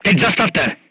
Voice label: voice message short stop, crew tanks czech